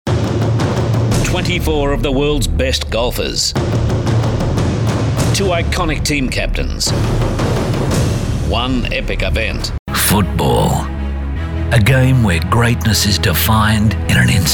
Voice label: Promos Sports